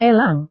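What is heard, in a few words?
"elang", Indonesian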